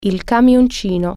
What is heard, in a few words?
truck, italian